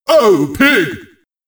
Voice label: "Oh-oh, big!", announcer; METAL SLUG 6; メタルスラッグ6; Unused materials